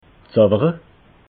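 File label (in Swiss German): "zawere", Alsatian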